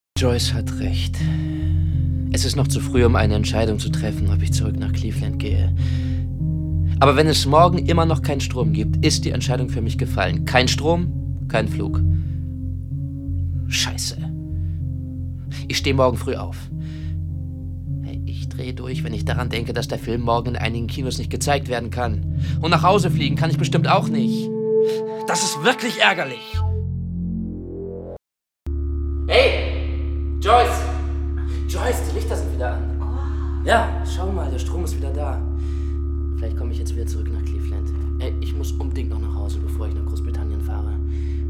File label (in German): Hoerspiel